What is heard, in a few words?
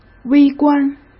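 "微观", Mandarin Chinese; hsk; chinese-flashcards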